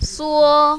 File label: "suo1", mandarin, female